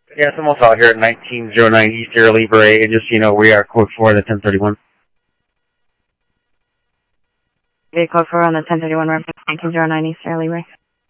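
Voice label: Phoenix police department, scanner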